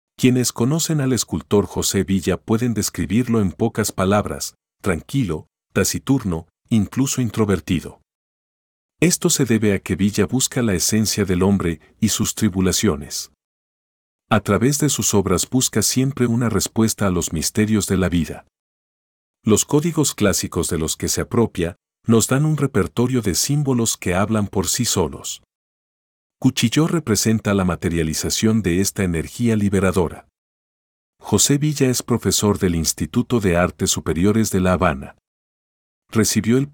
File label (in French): espagnol